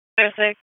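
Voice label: Police Dispatch, p25; Wake Forest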